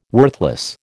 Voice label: "worthless", American